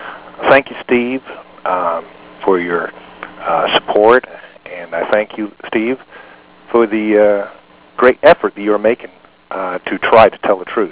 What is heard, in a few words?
poetry